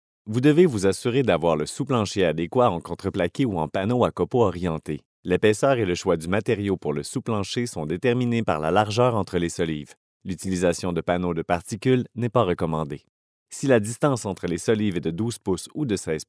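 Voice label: French Canada, male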